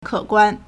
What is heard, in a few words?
"ke3guan1", Chinese